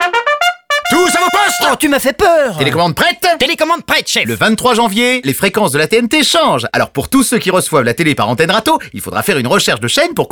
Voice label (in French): Spot radio; ANFR changement de fréquences